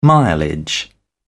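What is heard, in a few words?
"mileage", British English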